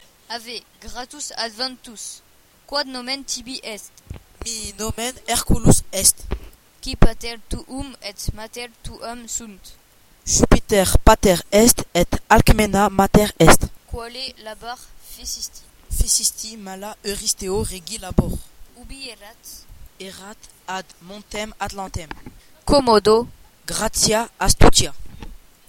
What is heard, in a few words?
hercule dialogue latin; audacity